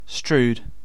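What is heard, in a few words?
"strewed", British English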